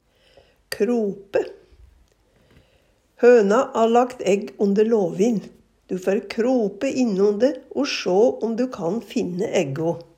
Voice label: krope onde, Numedalsmål

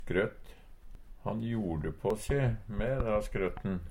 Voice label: skrøtt, Numedalsmål; Substantiv hankjønn

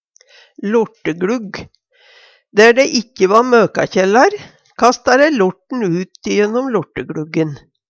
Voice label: lortegLugg, Numedalsmål

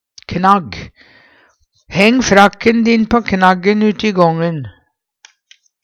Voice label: knagg, Numedalsmål; Substantiv hankjønn; Heng frakken din på knaggen uti gången.

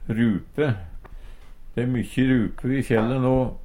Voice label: "rupe", Numedalsmål

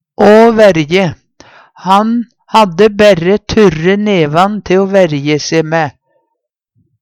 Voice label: verje se, Numedalsmål; Han hadde berre turre nevan te o verje se mæ.; forsvare seg

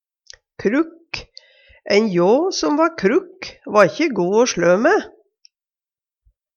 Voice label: krukk, Numedalsmål; Adjektiv

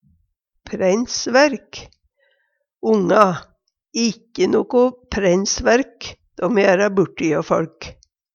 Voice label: preinsverk, Numedalsmål

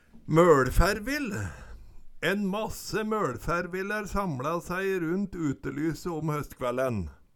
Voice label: møLfærvill, Numedalsmål

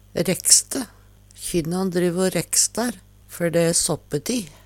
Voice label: rekste, Numedalsmål; Kjynnan driv o rekstar før dæ såppeti.

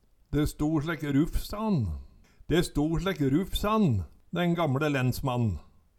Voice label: "de sto slekk rufs an", Numedalsmål

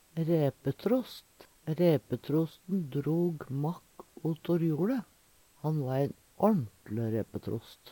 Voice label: raepetrost, Numedalsmål; ræpetrost; Eksempel på bruk Ræpetrosten drog makk otor joLe. Han va ein årnt`le ræpetrost.

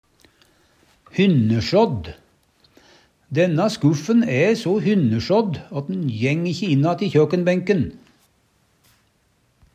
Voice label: hynnesjadd, Numedalsmål; Denna skuffen æ so hynnesjådd åt'n jæng ikkje inn att i kjøkenbænken.

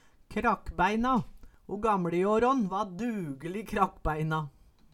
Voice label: krakkbaeina, Numedalsmål

